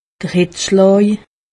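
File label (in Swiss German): "hitzschlaa", Alsatian; medecine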